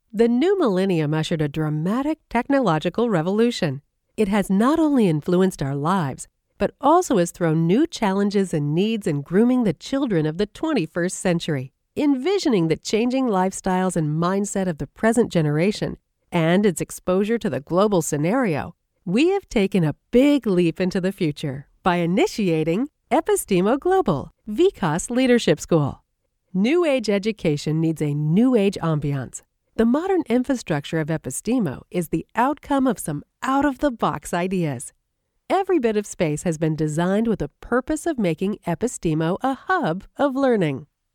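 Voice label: corporate english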